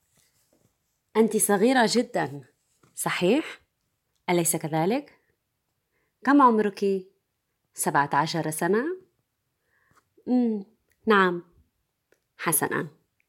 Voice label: Voice, arabic